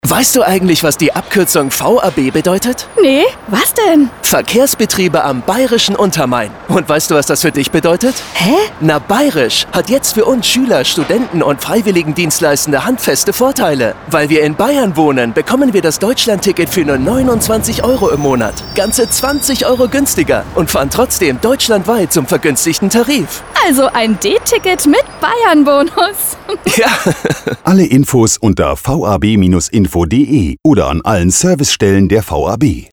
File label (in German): spot dialog; d-ticket; bayernbonus